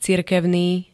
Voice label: "cirkevný", slovenské

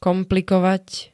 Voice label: "komplikovať", Slovenské

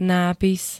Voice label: "nápis", Slovenské

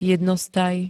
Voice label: "jednostaj", Slovenské

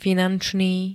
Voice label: "finančný", slovenské

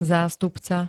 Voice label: "zástupca", Slovenské

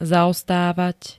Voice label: "zaostávať", Slovenské

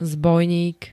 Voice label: "zbojník", slovenské

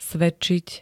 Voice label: "svedčiť", slovenské

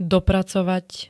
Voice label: "dopracovať", Slovenské

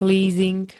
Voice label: "lízing", slovenské

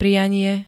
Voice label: "prianie", slovenské; kniha p-í a sťažností

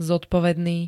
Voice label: "zodpovedný", slovenské

